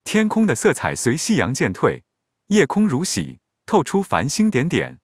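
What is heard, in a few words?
Chinese, China; iOS 26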